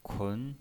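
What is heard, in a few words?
"kun", pinyin, Mandarin Chinese, second tone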